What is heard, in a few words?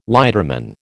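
"lighterman", American English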